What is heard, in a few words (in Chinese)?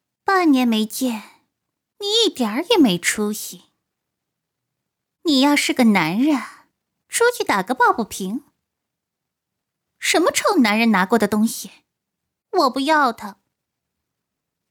古风娇蛮少女; 林妹妹